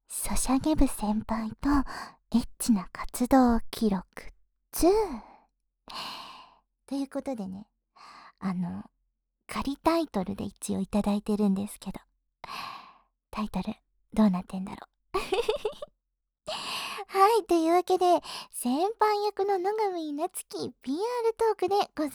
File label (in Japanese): フリートーク